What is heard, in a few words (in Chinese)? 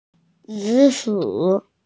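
"zi3shu3", Mandarin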